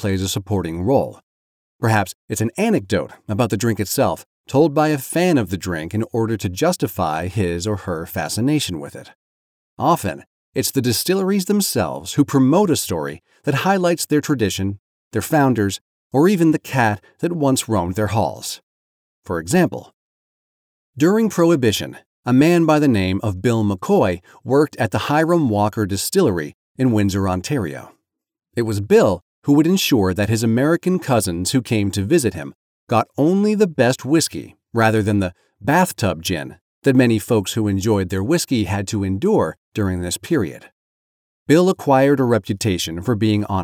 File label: Voice Actor Nonfiction Popular